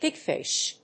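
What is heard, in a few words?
"pig-fish", English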